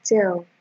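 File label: "tell", Nigerian Pidgin, female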